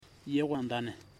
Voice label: "this big pig", Ipili